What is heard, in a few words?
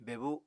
"bebu", Apatani